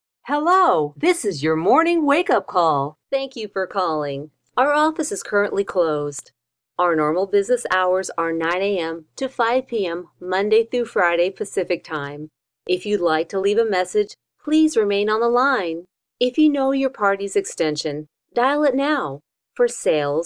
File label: IVR